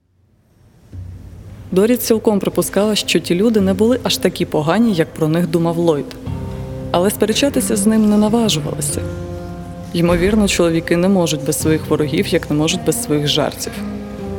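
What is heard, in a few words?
ukrainian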